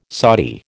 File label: "Saudi", American